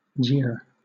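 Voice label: "jeer", British English; pronunciations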